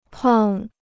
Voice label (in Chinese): "胖", Chinese